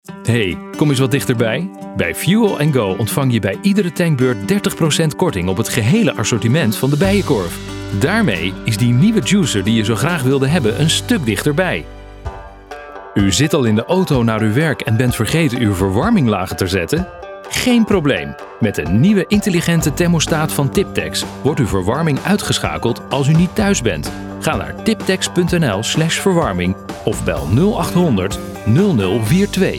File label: commercials